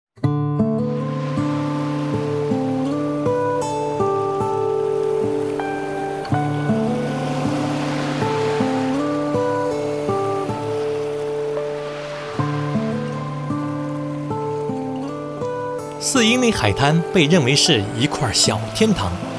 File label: Mandarin; Four-Mile